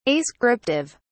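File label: "ascriptive", British English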